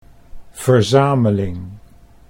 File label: "verzameling", Dutch